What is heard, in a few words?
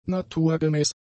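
"naturgemäß", German; adjectives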